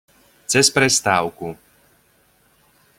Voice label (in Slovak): pronunciation sk cez prestavku